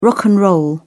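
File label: "rock and roll", British English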